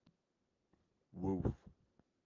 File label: voice message